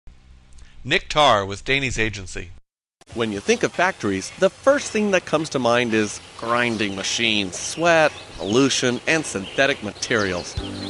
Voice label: Commercial, Male voices